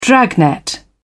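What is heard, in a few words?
"dragnet", British English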